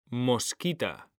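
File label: "mosquita", Spanish, male; mekanta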